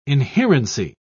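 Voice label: "inherency", British English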